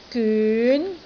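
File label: "gyun2", Cantonese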